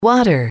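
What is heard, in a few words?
"water", English, female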